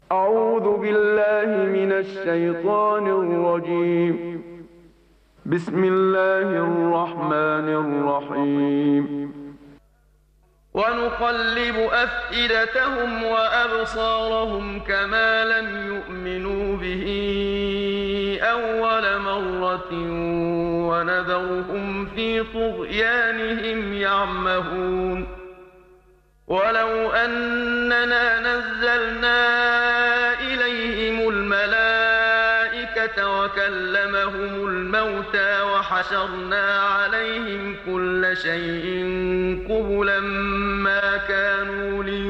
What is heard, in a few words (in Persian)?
تلاوت مجلسی سوره مبارکه انعام; محمد صدیق منشاوی